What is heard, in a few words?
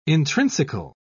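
"intrinsical", English, uk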